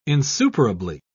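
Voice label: "insuperably", British English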